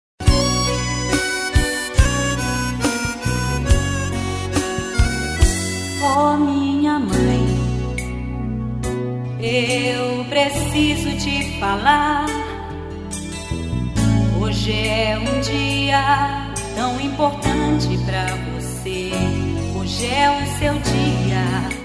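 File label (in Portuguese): birthday MAE DISTANTE male, Telemensagem Aniversário Mãe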